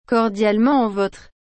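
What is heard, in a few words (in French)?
"Cordialement votre.", French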